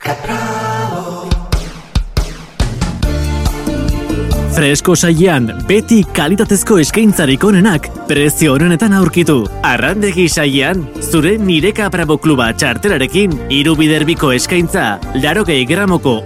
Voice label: basque corporate videos